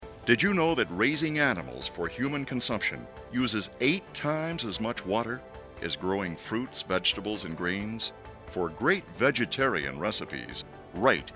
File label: radio spot; environment